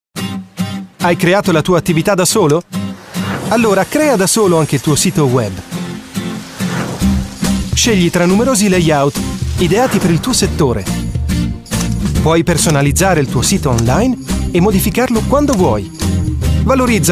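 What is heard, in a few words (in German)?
Italian werbung, voicesamples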